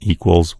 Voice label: "equal", en US; gcompris